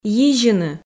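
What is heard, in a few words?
"йизьыны", Komi, female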